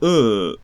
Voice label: Open-mid central rounded vowel

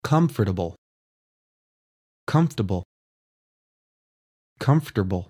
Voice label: Comfortable Disputed Pronunciation

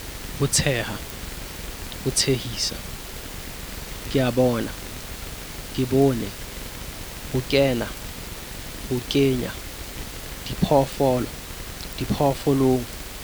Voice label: Sesotho pp mid raising

